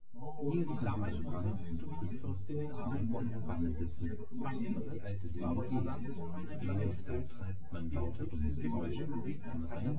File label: male; SiSEC08; SiSEC underdetermined